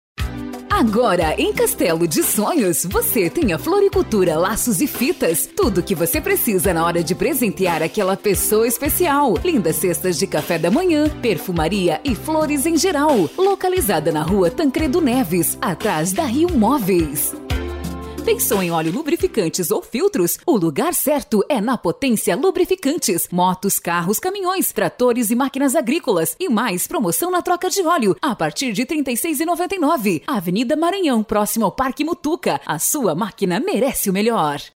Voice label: locutora

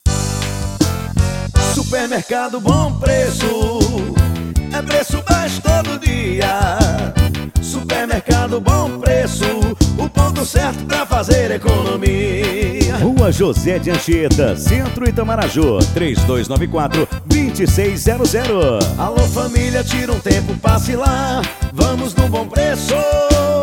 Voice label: radio; bom preço

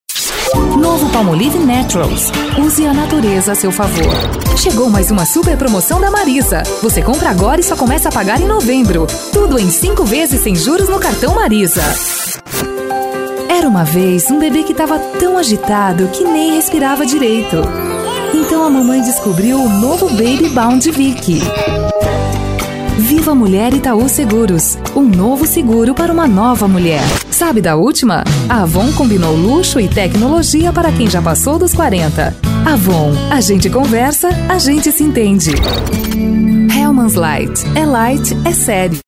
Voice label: locutora

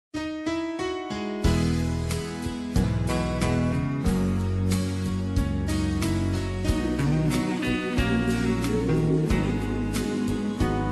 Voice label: locutor apaixonado

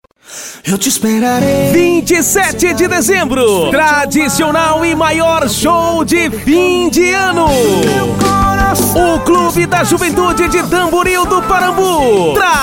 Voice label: spot; tamburil; parambu

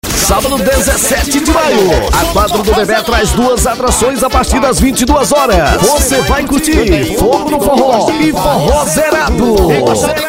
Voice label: locutor festa; festa st ferrao

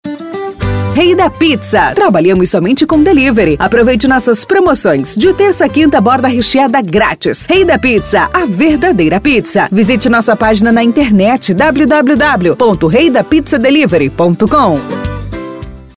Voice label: VOZ IMPACTO GRAVE vinheta para pizzaria pizza delivery vinheta spot chamada comercial

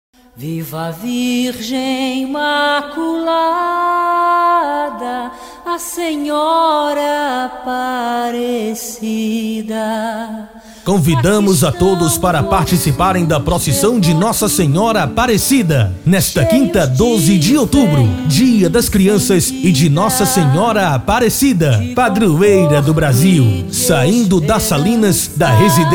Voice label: locutor locuções

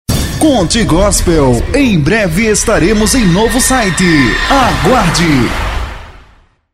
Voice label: em breve novo site vinheta; estudio mab

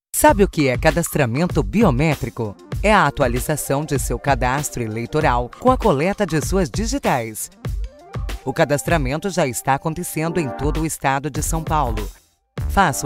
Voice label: demo locucao brasil

